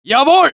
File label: German; mohaa